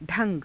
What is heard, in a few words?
"dha^ga", hindi